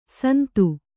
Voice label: "ಸುಂದು", Kannada

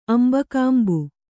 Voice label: "ಅಂಬಕಾಂಬು", Kannada

